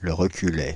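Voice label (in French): "Le Reculey", French, Paris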